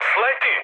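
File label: Serbian bailout mood high, speech; War Thunder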